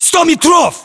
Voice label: threat distance, ru; localized english

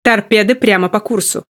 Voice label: Speech Alarms Torpedo Ahead; World Of Warships

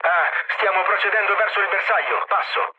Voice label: chat, speech it; War Thunder

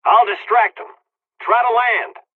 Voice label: dialogs serbian; War Thunder

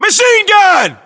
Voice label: US threat mg, battlechatter, english

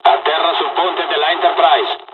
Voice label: landing, dialogs italian; midway us; War Thunder

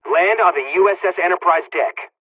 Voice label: landing, dialogs hungarian; War Thunder

